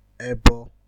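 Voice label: "ebo", Nigerian Pidgin, male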